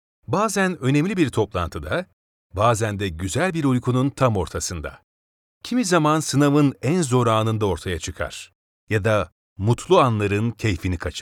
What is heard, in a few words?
E-learning